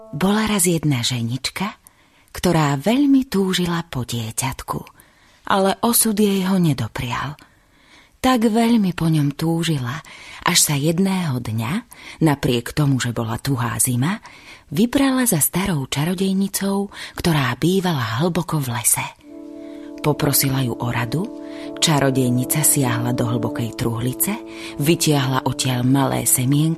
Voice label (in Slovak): Audiokniha; Palculienka; Z Rozpravky Do Rozpravky